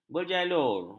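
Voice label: "berjalur", Malay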